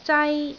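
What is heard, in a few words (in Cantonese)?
"zai1", Cantonese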